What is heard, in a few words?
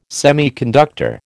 "semiconductor", American